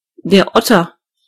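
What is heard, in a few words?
otter, German voices words; gcompris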